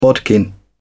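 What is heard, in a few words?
"bodkin", British English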